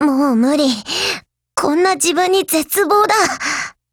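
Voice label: retreat, Japanese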